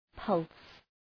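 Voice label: "pulse", English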